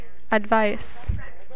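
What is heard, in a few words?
"advice", USA, female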